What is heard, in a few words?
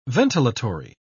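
"ventilatory", British English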